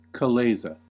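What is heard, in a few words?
"chalaza", US English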